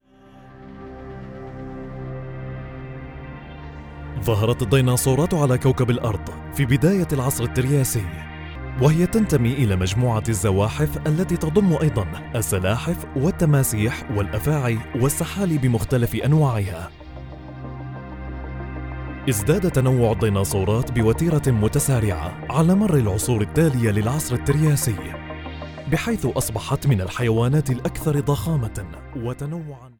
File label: arabic documentaries